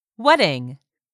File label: "WEDDING", English; 3000 words